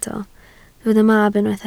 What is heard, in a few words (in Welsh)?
"mab", Welsh